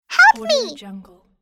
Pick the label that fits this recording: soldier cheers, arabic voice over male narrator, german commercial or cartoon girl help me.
cartoon girl help me